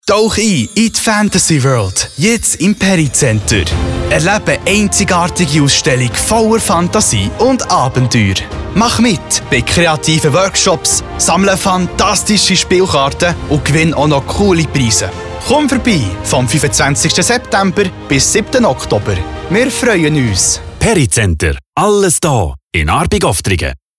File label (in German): Werbung CH BE; flippig frech verspielt